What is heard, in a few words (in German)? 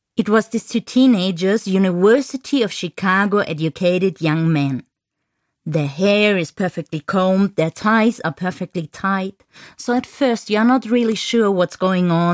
Audio Fremdsprache Englisch